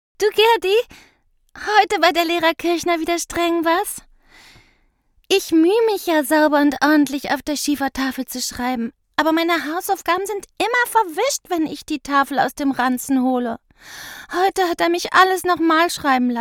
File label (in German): kleines Maedchen; Kinderstimme